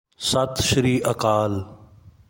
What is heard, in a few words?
Punjabi Good afternoon; Greetings